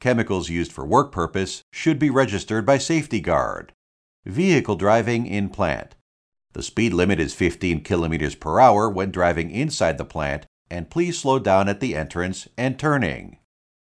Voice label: Visitor HSE Notice; Morimatsu